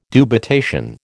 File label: "dubitation", American